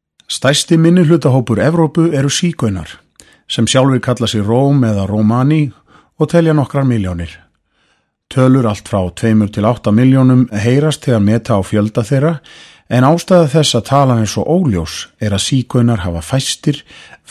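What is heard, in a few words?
Icelandic male narration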